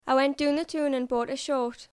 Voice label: Geordie, accents of english